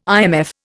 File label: "IMF", Indian